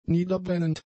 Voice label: "niederbrennend", German; adjectives